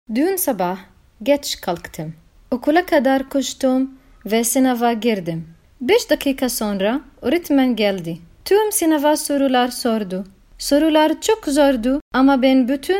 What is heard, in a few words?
Turkish female